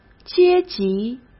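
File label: "阶级", Mandarin Chinese; chinese-flashcards; hsk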